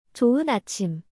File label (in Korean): "조은 아침！", Korean; チョウン アチム！